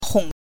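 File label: "hong", Mandarin Chinese pinyin, neutral tone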